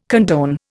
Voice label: "condone", Indian